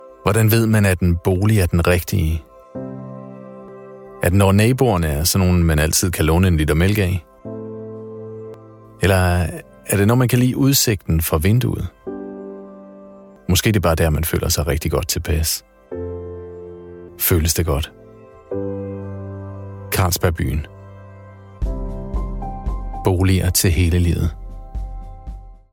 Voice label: Danish